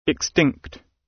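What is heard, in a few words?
"extinct", British; Flora and fauna, agriculture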